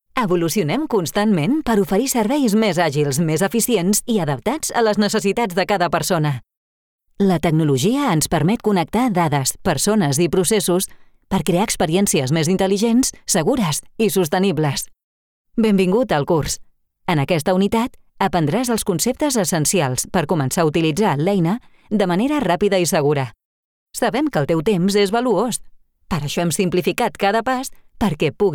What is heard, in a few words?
Catalan VO Dinamica